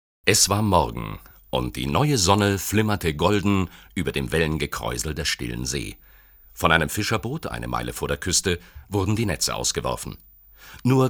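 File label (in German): Erzählung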